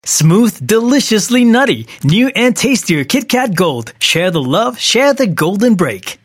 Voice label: Malay English; KitKat Gold